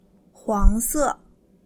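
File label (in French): "jaune", French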